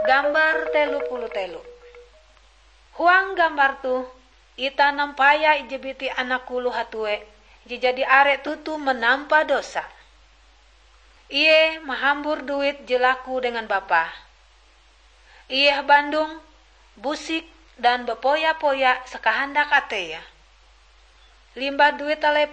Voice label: kabar bahalap good news ngaju, drama